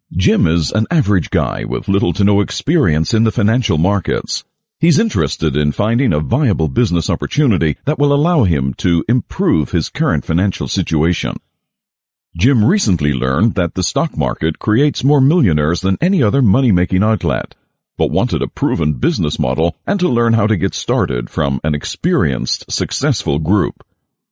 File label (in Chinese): 英式男声